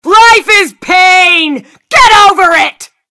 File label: taunt life is pain get over it